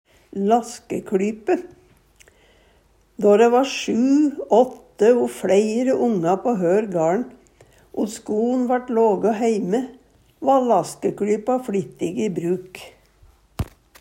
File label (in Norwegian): laskekLype, Numedalsmål; laske- sy saman eller sy på ein lapp (lask)